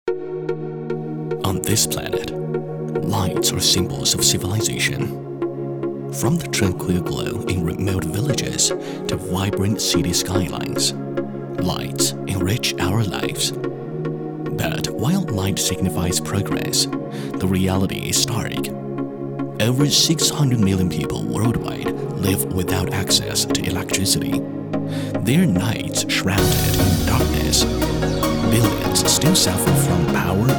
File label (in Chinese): 英男 英文 沉稳浑厚 科技公司企宣